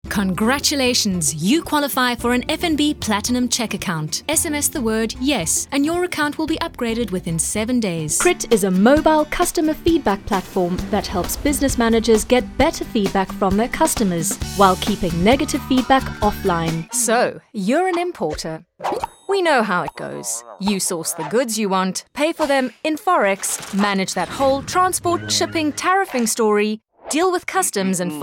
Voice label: english south african corporate videos